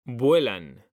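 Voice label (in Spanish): "vuelan", Spanish, male; mekanta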